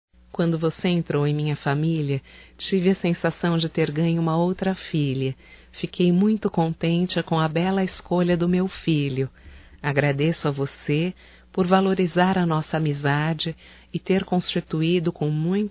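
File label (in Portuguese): female, Telemensagens Aniversarios; Telemensagens 2017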